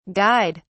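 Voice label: "guyed", British English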